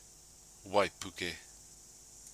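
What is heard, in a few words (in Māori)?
"waipuke", Māori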